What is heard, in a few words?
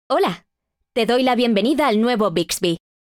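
onboarding provision greeting, Spanish; Bixby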